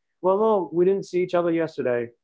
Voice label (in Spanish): Voice Acting es M